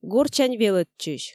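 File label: "гортсянь велӧдчысь", Komi, female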